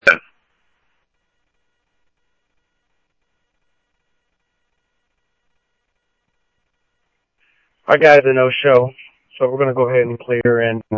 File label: scanner audio